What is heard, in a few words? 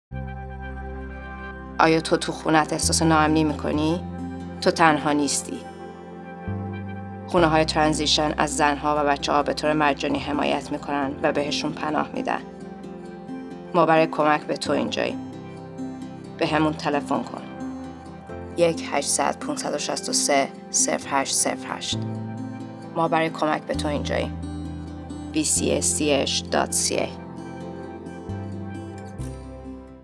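30sec RADIO PSA Farsi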